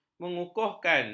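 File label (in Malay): "mengukuhkan", Malay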